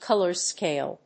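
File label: "COLOR SCALE", English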